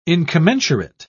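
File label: "incommensurate", British English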